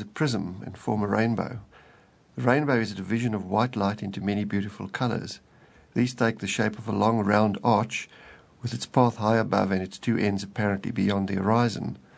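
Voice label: south africa, dialects of English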